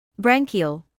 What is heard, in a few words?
"branchial", medical english; ˈbræŋ.kiː.əl; BRANG-kee-uhl